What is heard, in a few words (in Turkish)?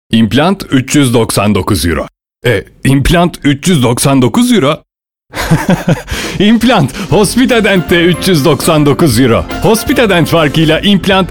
reklam; hospitadent